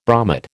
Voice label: "bromate", American